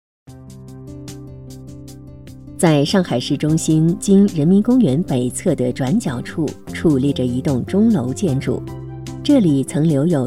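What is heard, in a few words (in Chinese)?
解说博物馆; 上海博物馆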